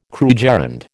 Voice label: "krugerrand", American